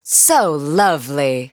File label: VO en